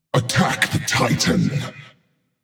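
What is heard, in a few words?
vox attack, male; smite; osiris; voicepack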